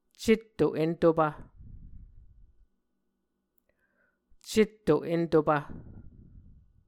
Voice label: "cetto-entopv", muscogee